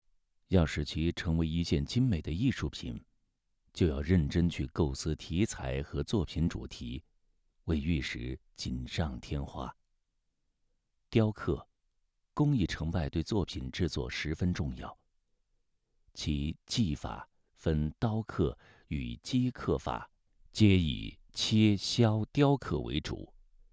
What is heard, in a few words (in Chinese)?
纪录片